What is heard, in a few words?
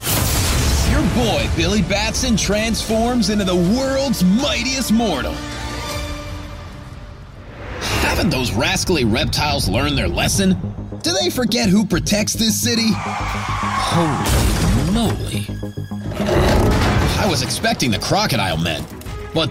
Superhero Animation; Voice123